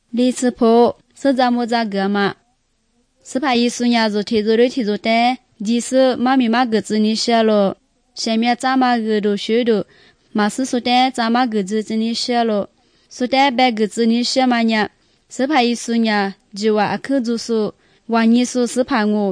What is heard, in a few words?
good news lisu southern, drama